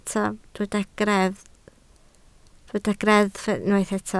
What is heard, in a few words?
"greddf", Welsh